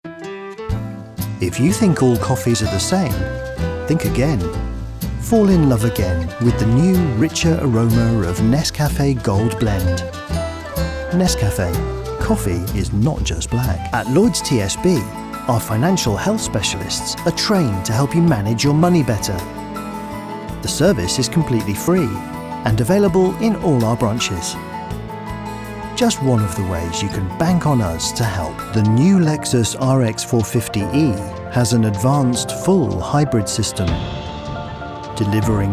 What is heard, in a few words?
Commercial